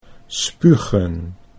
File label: "spugen", Dutch